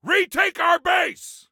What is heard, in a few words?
Base Retake, Soldier Male; Soldier Male 03